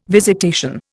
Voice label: "visitation", Indian